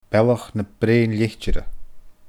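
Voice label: "Bealach na Breun Leitire", Scottish Gaelic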